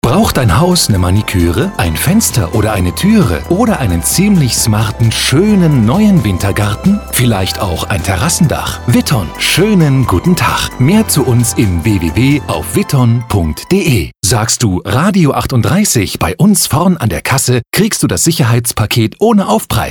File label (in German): Funkspot; Witton